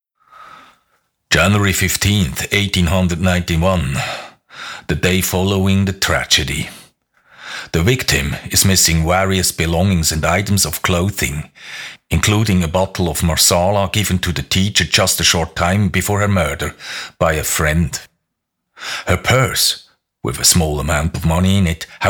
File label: Text englisch